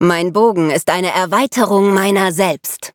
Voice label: levelup, de; Cookie Run